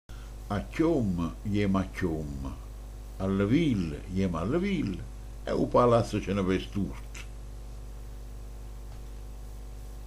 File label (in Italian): piombo e livella, manfredoniano